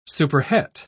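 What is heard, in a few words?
"superhet", English, uk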